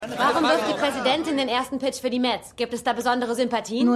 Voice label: Reporterin, Stimmproben; Madam Secretary

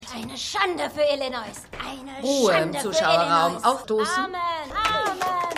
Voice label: Zuschauerin; The Good Fight

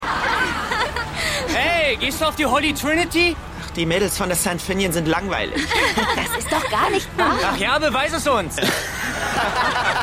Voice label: Div Jugendliche; Keine Gnade Fuer Dad

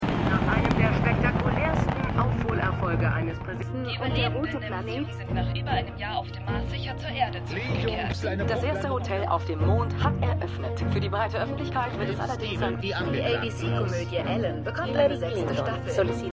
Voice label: Nachrichten, Stimmproben; Glasnost; For All Mankind

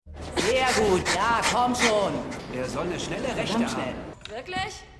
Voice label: Frau Mann Zuhoererin; Rescue Me

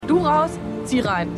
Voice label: Frau in Taxi

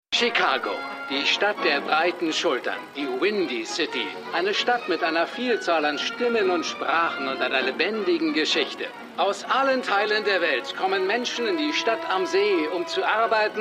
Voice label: Filmsprecher, Stimmproben; Shameless

